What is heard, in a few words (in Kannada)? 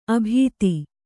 "ಅಭೀತಿ", Kannada